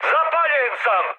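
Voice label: Serbian on fire mood high, speech; War Thunder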